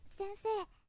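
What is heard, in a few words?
boy, "sennsei"; shonen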